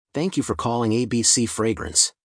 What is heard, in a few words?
welcome, phone